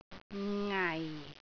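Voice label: "ngai4", Cantonese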